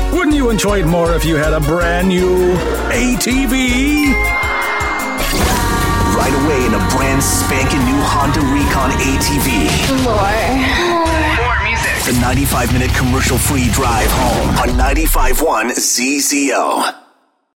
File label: Rock Radio